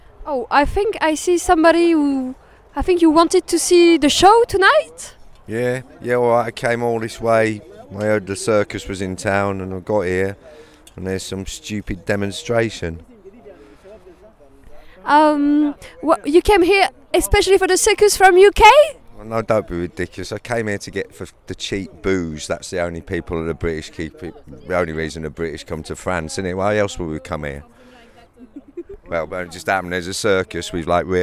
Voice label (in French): Interview touriste anglais